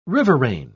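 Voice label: "riverain", British English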